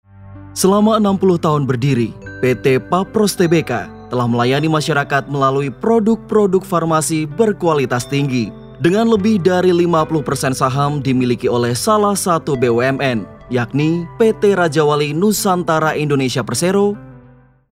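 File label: formal Indonesian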